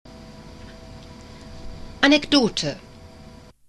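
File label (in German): "Anekdote", German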